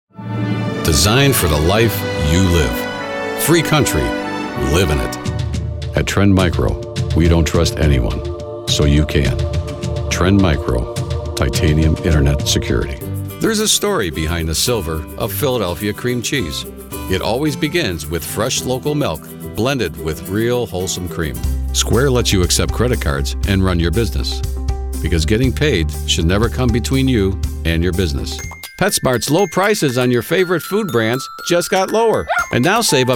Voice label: US male; lektoring